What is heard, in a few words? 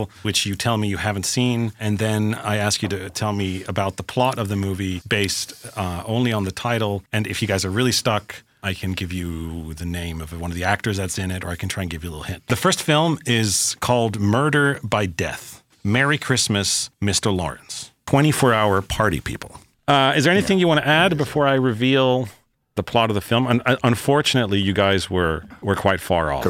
US Natural Podcast samples